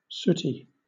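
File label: "sooty", British English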